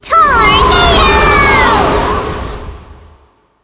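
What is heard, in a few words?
skill tornado, English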